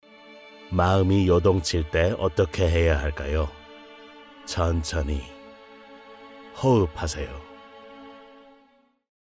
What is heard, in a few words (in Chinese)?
外籍韩语男-抒情